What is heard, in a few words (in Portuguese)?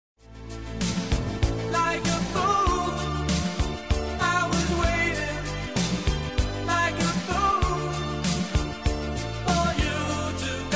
namorado masc, voz masculina; Dia dos Namorados